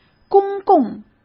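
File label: "公共" Mandarin Chinese; hsk; chinese-flashcards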